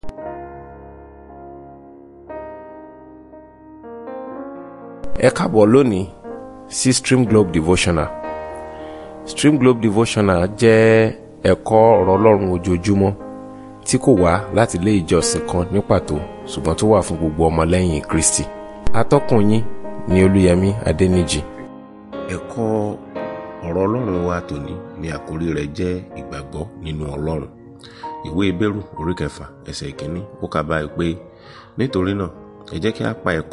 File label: Devotional Audio Yoruba